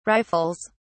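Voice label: "rifles", British English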